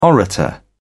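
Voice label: "orator", English, British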